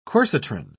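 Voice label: "quercitron", British English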